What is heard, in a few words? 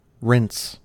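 "rinse", US English; pronunciations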